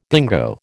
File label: "dingo", American